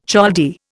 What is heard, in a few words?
"Chaldee", Indian